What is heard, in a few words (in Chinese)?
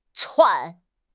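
"窜", Chinese; HSK6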